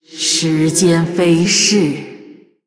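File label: Female, Chinese; ▶时间飞逝…… ▶Time slips by...; zh; Blood Elf; 思考3 Thinking3; 虚空之影瓦莉拉; Valeera Deathknight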